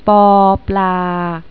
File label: "pla", Thai